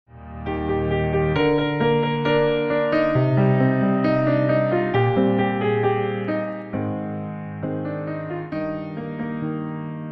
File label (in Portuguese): otimismo linda, Telemensagem de Otimismo – Voz Feminina